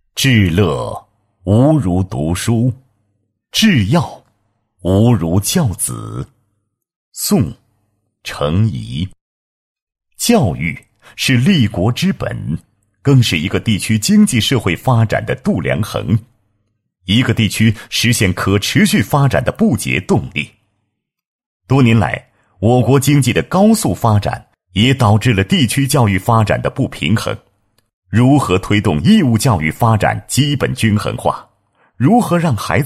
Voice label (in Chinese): 男 工作纪实; 某市城区创建教育均衡